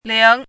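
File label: "líng", Mandarin Chinese; 霝; 古同"零"，（雨等）降落。; 古同"灵"，灵验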